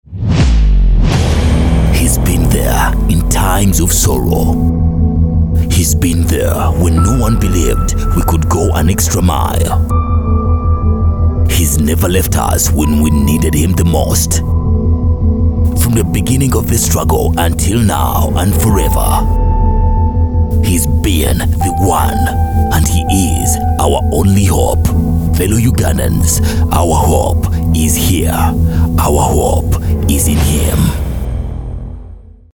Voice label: Advert; Hope

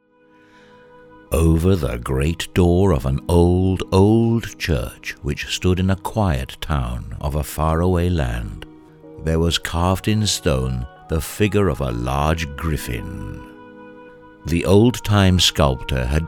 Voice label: Story Telling

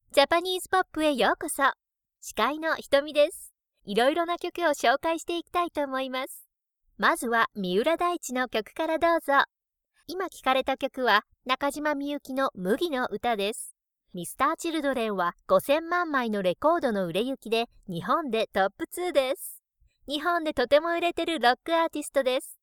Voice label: Announcement; English (North American)

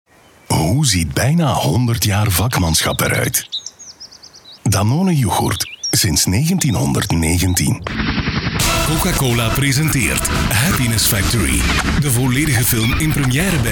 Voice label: Commercials

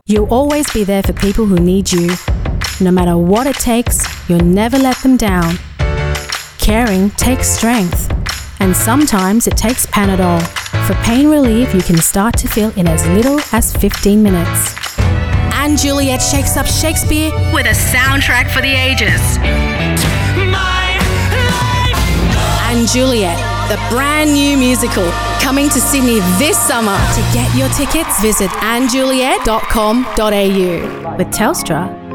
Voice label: Full Voice

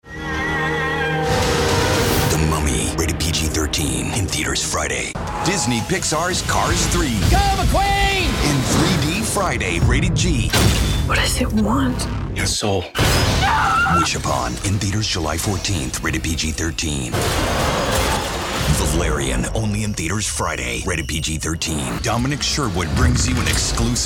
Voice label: summer trailer

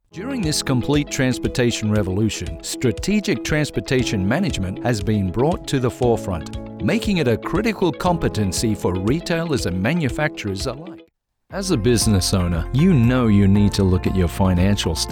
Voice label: Explainer Video

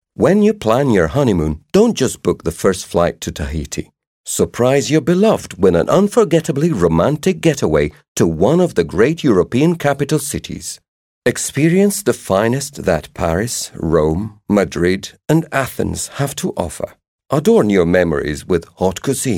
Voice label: Commercial Assertive

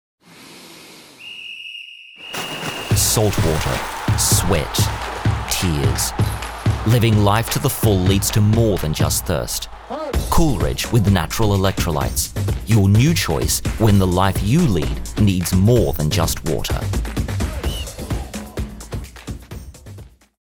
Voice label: ad; Cool Ridge

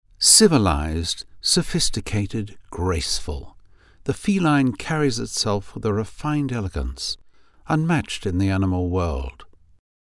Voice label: British RP

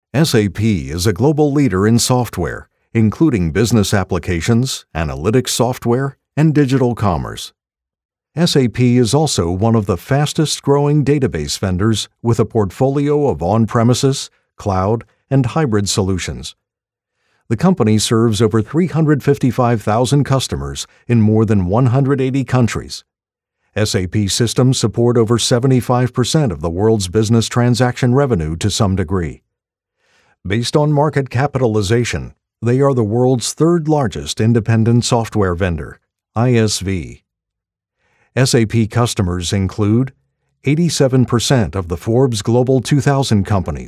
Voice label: eLearning Technical Marketing

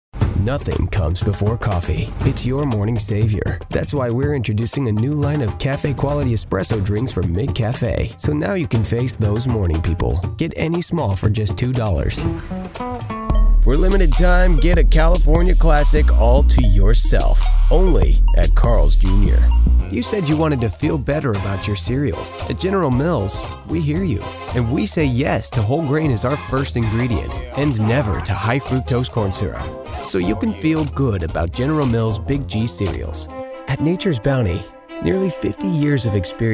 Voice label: Commercial

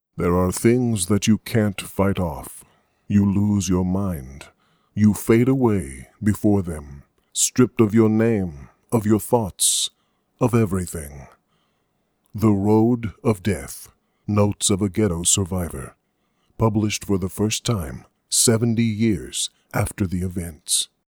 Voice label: Movie Trailer

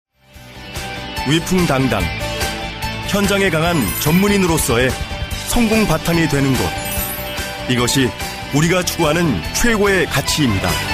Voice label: Biz Promotional Korean

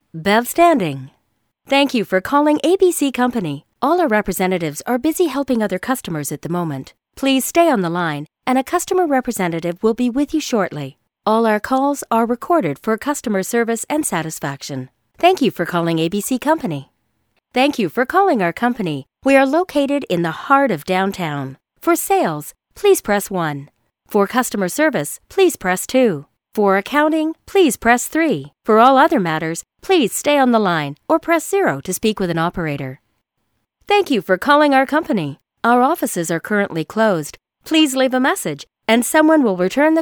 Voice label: Telephone general

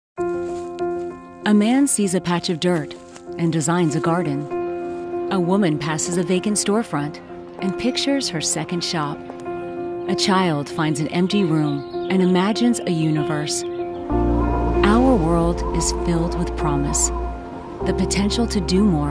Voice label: Television Spots

